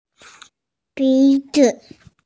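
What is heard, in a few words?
"bi2 zi5", Mandarin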